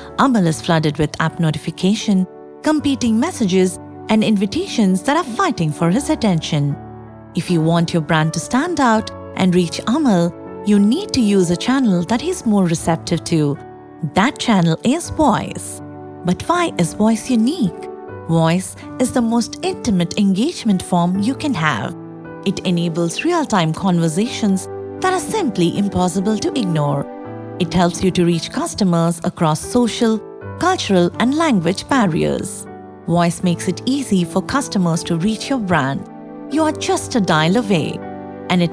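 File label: Ivr English; Caraxvoice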